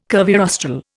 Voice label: "curvirostral", Indian

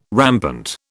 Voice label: "rampant", British

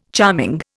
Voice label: "charming", Indian

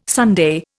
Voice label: "sundae", Indian

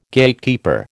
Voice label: "Gatekeeper", American

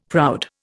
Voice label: "proud", Indian; Dictionary

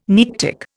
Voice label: "Nearctic", Indian

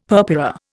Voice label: "purpura", Indian; Dictionary

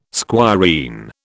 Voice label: "squireen", British

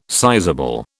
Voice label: "sizable", British

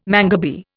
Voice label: "mangabey", Indian

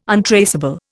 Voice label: "untraceable", Indian

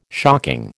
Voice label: "shocking", American

